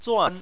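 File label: "zuan" fourth tone, chinese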